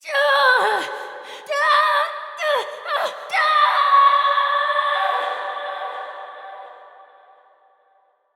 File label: Bridge Collapse Adult; Warframe; Duviri Quest; Duviri Paradox Quest